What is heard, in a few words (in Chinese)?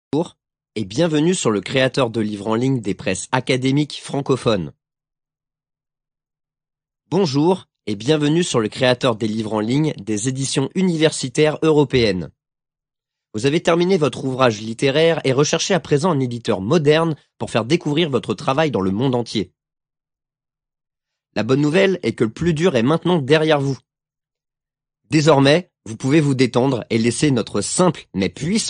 法语男, 外语, 小语种